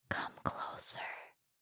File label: asmr girl come closer; asmr ringtones